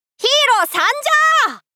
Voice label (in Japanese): "ヒーロー参上！" ショタ系×中音域